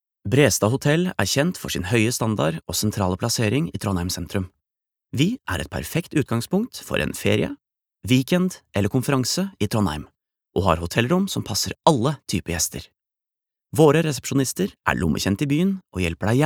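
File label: no explainer, Norwegian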